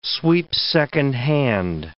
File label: "sweep-second hand", English word